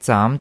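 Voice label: "zaam2", Cantonese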